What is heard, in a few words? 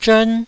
"zhern1", Taiwanese